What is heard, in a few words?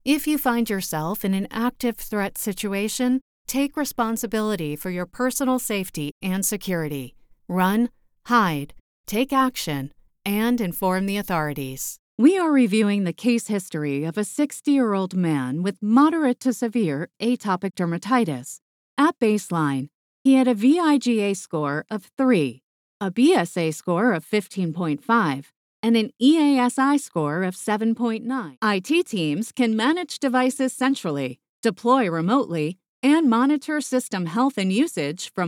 ELearning Corporate